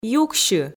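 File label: "юксьы", Komi, female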